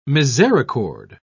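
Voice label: "misericord", British English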